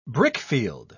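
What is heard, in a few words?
"brickfield", English, British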